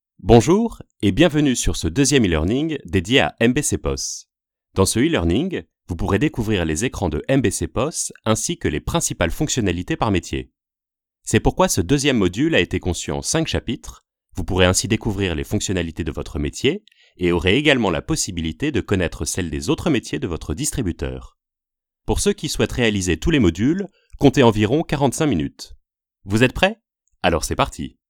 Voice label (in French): Extrait voix elearning